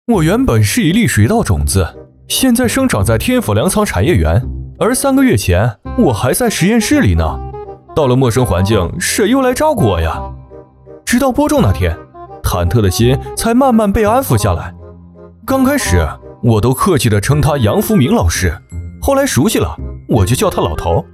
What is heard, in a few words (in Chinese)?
男 解说 幽默感 拟人